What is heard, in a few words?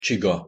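"csiga" pronunciation Hungarian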